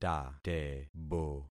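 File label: "tatebo", concatenated syllables; Affixing experiment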